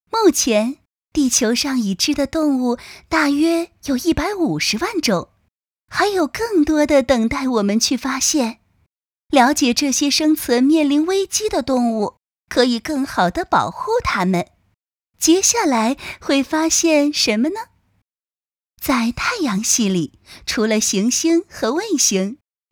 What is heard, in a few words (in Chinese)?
成女明亮